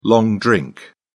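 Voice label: "long drink", British English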